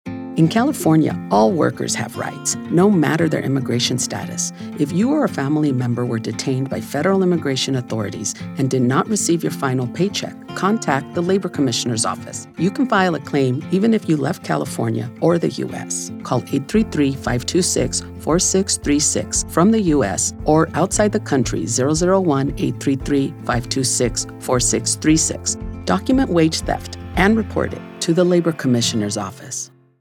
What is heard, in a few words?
English radio ad; All Workers Have Rights (:30); wage theft